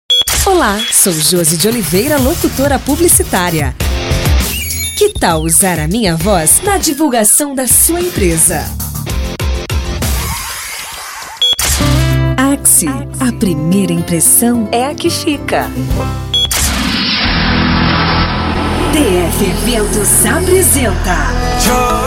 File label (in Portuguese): vozes femininas; studio do lourinho mix